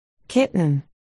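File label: "kitten", spoken word, articulation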